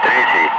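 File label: Russian calm; Ka-50; Wingman; DCS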